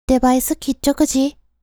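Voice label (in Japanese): デバイス切っちょくじ?, 宮崎弁; Windows向けシステムボイス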